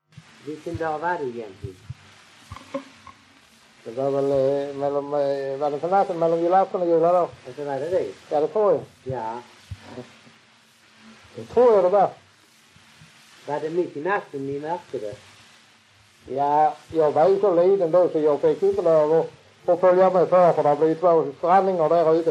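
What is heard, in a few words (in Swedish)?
Raus Skåne, Svenska sagor dialekter; Folkminnen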